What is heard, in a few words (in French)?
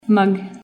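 "tasse", English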